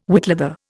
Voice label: "whitleather", Indian